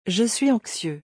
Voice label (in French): "je suis anxieux", francais